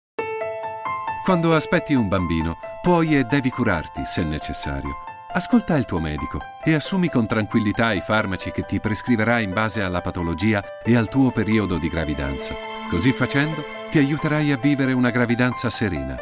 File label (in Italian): Spot radio; campagna gravidanza